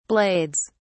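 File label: "blades", British English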